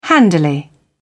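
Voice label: "handily", British English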